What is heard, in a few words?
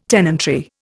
"tenantry", Indian